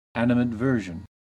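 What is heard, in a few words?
"animadversion", American English; pronunciations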